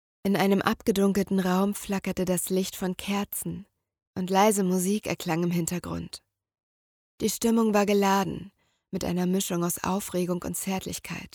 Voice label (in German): hoerprobe liebesroman